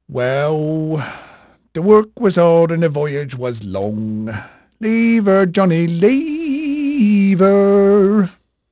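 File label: English male, voice; Pirates Of The Caribbean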